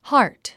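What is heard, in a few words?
"heart", English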